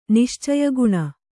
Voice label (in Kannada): "ನಿಶ್ಚಯಗುಣ", Kannada